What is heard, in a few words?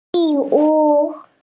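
"zhi1 zhu1", Mandarin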